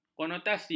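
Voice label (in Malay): "konotasi", Malay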